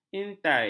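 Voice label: "intai", Malay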